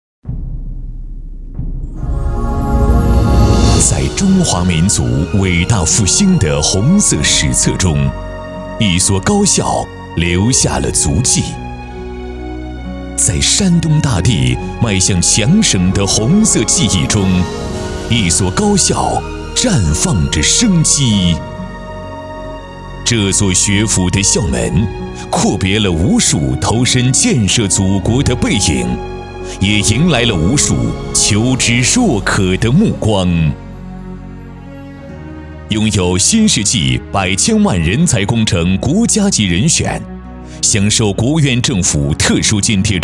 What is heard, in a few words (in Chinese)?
大气 抒情; 管理学院